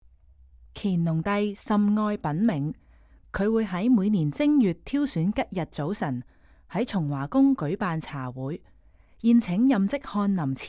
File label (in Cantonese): Can VO; from dawn to dusk